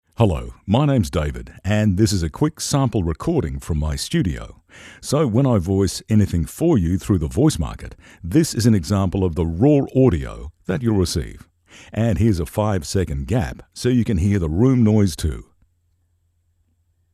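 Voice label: Studio Sound Check, male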